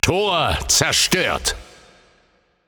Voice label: Announcer German Match Destroyed Gate

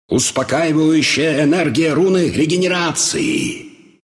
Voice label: regen, ru; Dota 2

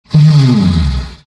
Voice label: happy; rubick

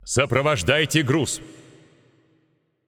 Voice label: Announcer Russian Match Role Attack; paladins

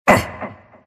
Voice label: anger; Earthshaker; arcana

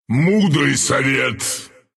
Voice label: move, ru; dota2

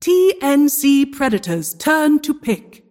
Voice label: announcer team pick

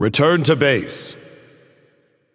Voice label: Careful, Announcer; VGS; Paladins

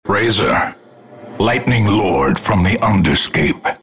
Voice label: announcer hero announced

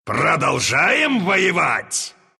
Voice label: respawn, ru; Dota 2

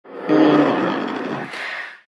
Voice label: happy; Death Prophet

